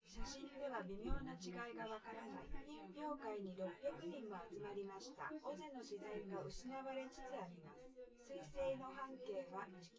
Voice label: female synthconv